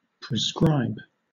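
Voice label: "prescribe", British English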